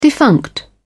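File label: "defunct", British English, dictionary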